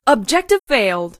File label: Objective Failed, announcer female